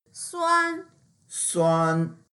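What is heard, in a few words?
"suan1", Mandarin Chinese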